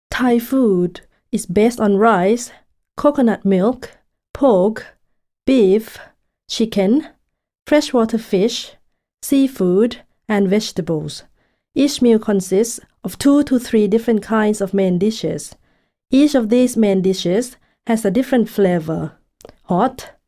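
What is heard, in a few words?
Thai Accented English; GoLocalise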